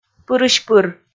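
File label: "пырысь-пыр", Komi, female; kpv audio dict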